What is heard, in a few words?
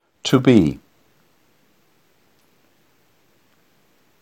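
pronunciation "to be", English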